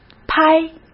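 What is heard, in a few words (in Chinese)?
"拍", Mandarin Chinese; chinese-flashcards; hsk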